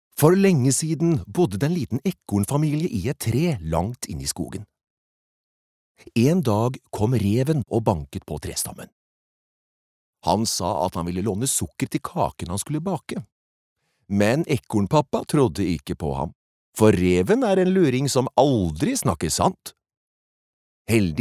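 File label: Norwegian childrens book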